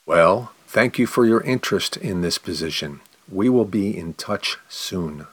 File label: question slow